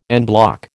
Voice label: "en bloc", American